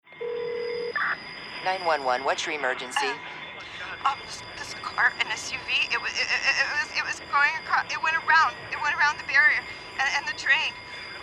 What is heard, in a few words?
911 female; 911 rev female